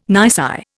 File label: "nisi", Indian